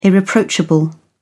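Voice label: "irreproachable", British English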